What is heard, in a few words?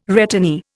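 "returnee", Indian English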